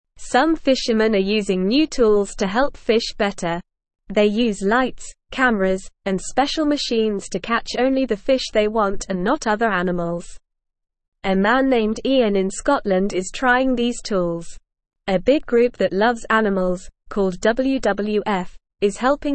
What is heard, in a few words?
English Beginner NORMAL Reading; English Newsroom; Fishing with New Tools Helps Protect Sea Animals